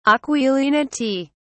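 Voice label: "aquilinity", British English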